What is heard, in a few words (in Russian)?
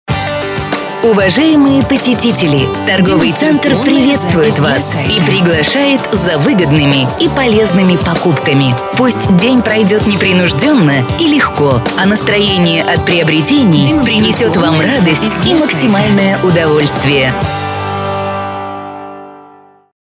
Ролик открытия торгового центра женский